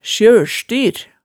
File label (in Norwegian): "sjølstyr", hemsedalsmaalet